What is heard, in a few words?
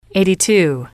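"eight two", English, female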